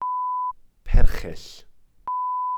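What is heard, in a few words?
"perchyll", Welsh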